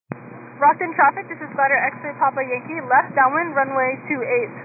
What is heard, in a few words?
122 725MHz, scanner radio